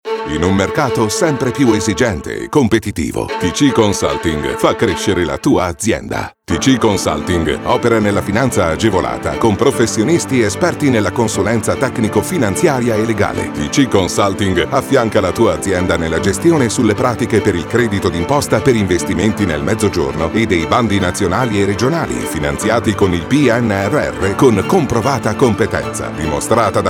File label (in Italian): pubblicita audio radio